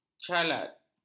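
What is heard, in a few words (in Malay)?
"calat", Malay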